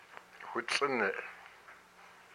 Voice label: my bone, hupa

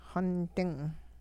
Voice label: it is close near by, hupa

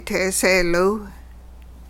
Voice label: I started swimming underwater, hupa

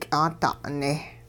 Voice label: picking up acorns, hupa

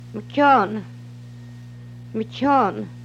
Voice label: odor, hupa

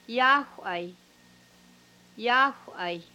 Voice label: I m sitting up, hupa

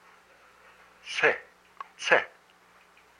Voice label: "fart", hupa

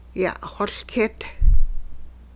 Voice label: one person caught a group of people, hupa

